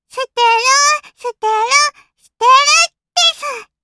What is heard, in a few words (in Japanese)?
System Voice 少女 ゴミ箱を空にする